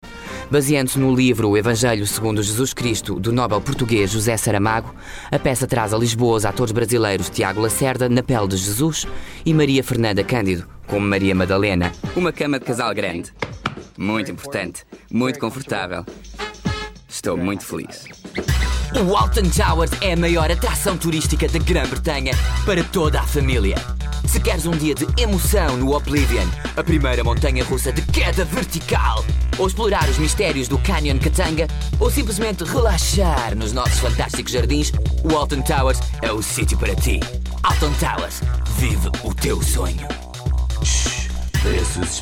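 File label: European Portuguese General; GoLocalise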